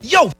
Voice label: "yo", voice